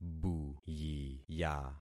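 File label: "buyiya", concatenated syllables; Affixing experiment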